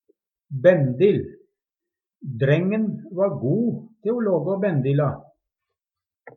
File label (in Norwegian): "bendil", Numedalsmål; Substantiv hankjønn